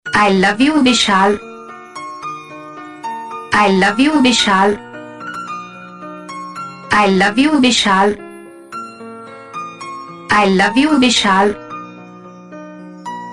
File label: I love You Hindi Name Ringtone